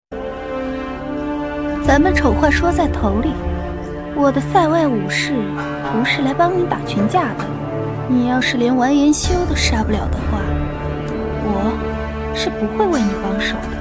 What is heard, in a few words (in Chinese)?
动漫配音